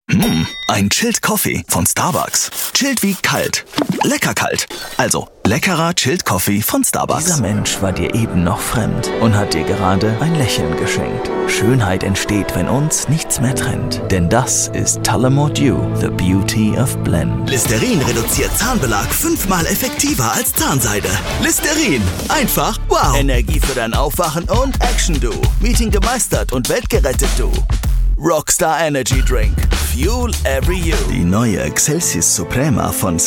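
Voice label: German; GoLocalise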